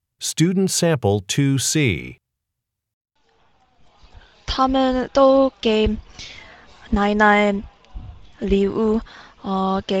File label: chinese conversation